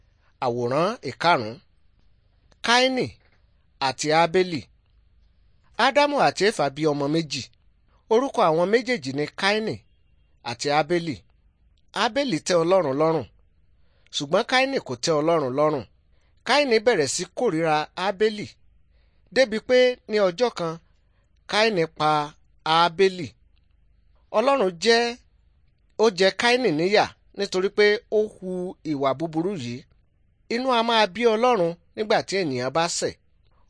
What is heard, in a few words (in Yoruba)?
good news yoruba